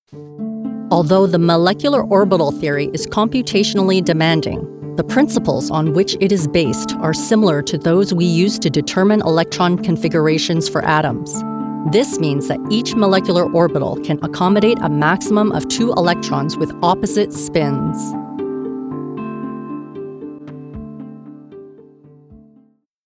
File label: E LEARNING TECHNICAL